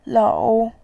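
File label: "lou", Mandarin, tone 3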